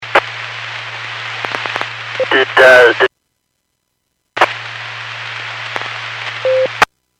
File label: Skywarn 146.67; Stevens Point